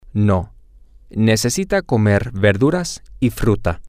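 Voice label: Spanish, male; no he needs to eat vegetables and frui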